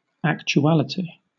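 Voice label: "actuality", British English; pronunciation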